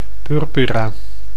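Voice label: "purpura", Dutch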